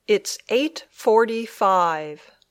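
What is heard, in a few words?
"It's eight forty five", English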